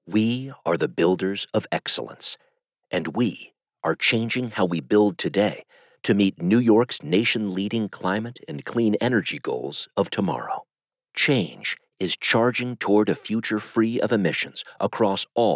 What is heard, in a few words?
narr